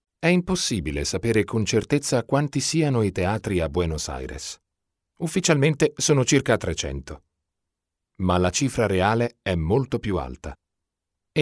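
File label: Italian male voice over, narrative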